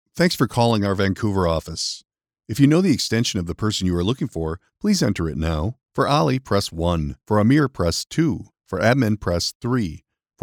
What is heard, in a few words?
IVR sample